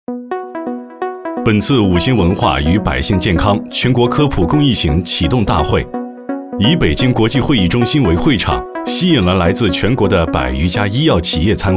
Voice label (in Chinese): 男 新闻 会议回顾